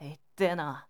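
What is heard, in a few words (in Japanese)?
"iteena", Japanese boy; shonen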